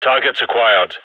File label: radio enemy spotted; gign